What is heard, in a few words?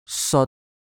"seot1", cantonese